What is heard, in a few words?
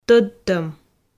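"тӧдтӧм", Komi, female; audio dict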